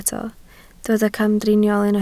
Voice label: "camdriniol", Welsh